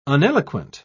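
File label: "uneloquent", British English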